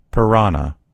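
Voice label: "piranha", American English; gcompris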